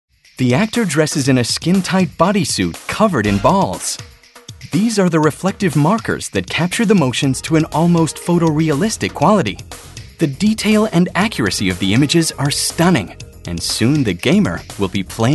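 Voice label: American, narration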